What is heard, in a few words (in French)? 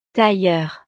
"tailleur", frances; profesiones